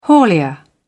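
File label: "haulier", English, uk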